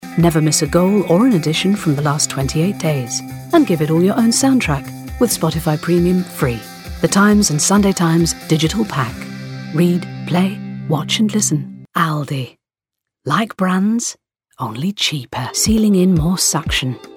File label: Commercial